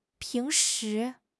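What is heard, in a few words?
"ping2 shi2", Mandarin Chinese; HSK4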